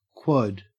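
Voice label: "quad", Australian English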